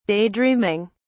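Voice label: "daydreaming", English, British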